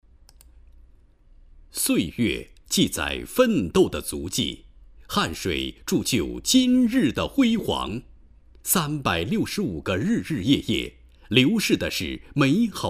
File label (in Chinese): 宣传片; 上海监狱管理局工作会议